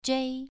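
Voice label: "zhei2", Chinese pronunciation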